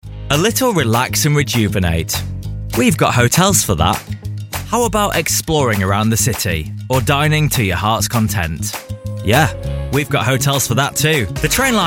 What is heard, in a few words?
commercial